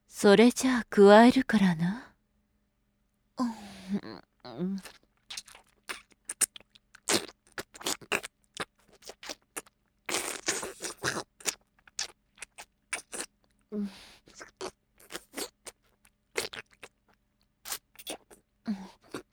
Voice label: ane cool, adult voice; freezing